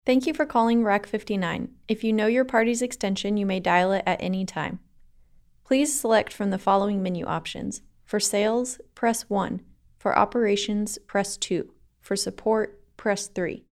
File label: Phone Message